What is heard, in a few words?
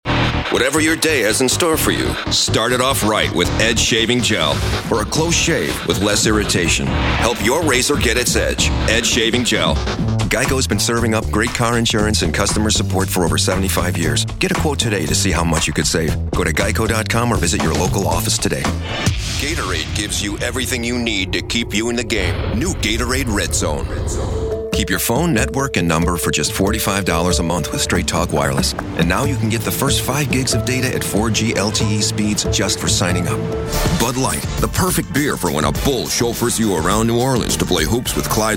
COMMERCIAL